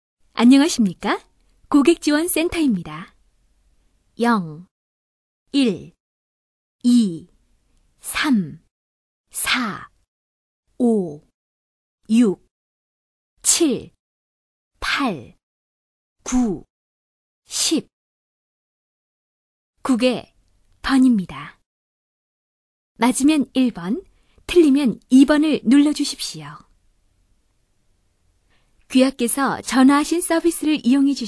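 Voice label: Korean, female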